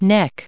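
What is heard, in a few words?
"neck", English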